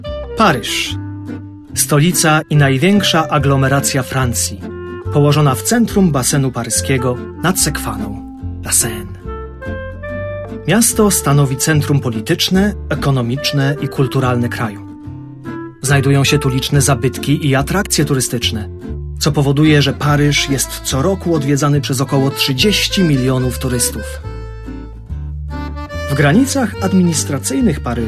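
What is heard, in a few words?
Polish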